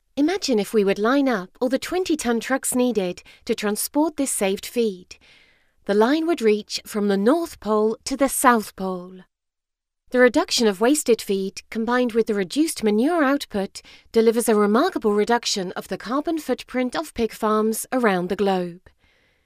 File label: locutora inglesa english voiceover; English British female voice over